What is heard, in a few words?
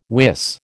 "wis", American